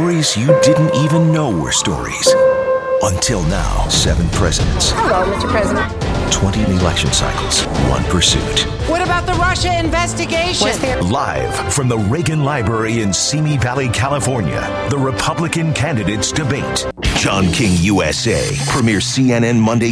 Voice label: promos men news